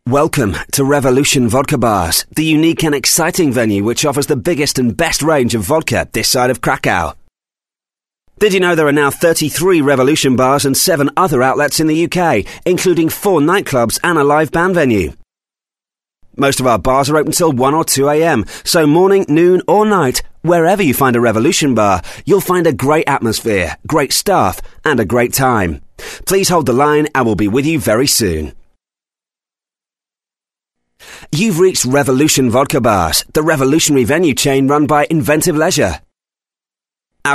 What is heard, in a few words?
Male Trendy Cool VO